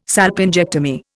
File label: "salpingectomy", Indian